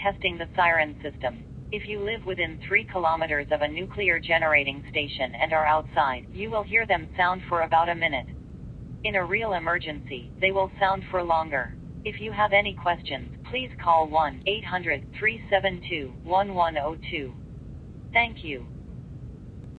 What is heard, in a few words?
Automated phone message; Nuclear Preparedness